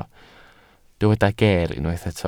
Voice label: "geer", Welsh

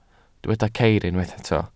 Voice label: "ceir", Welsh

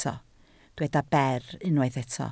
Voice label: "ber", Welsh

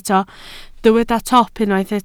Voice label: "top", Welsh

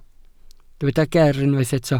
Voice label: "ger" Welsh

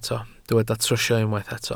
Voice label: "trwsio", Welsh

